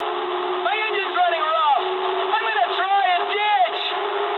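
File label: English damaged engine mood high; speech; War Thunder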